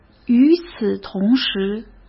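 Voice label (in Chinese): "与此同时", Mandarin Chinese; hsk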